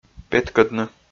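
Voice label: "петкӧдны", Komi, male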